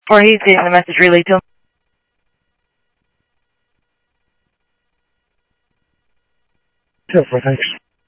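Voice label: Sheriff East County, scanner audio trunk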